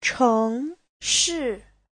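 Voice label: "chéngshì", Mandarin Chinese; Giáo trình Hán ngữ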